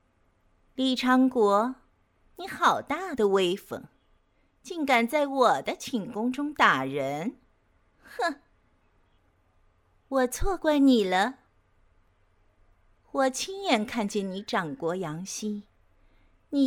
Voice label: 娇媚

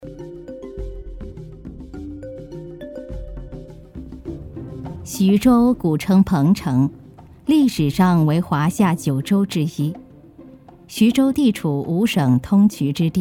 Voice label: 纪录片; 舌尖

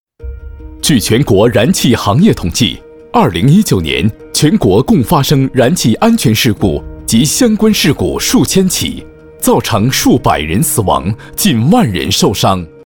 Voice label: 宣传片

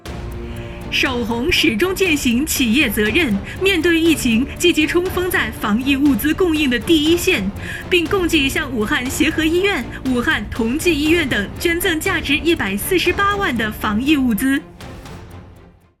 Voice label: 广告大气恢弘

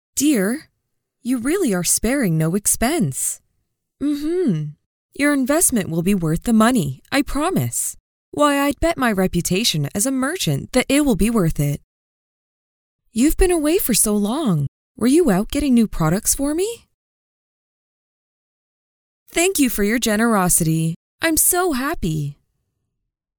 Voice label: 美式女英文游戏女青年

